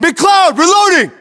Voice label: inform reloading, battlechatter us